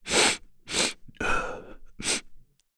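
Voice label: Vox Sad, English; Bernheim; kingsraid; voices heroes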